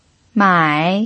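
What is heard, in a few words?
"mai", Mandarin Chinese pinyin, tone 3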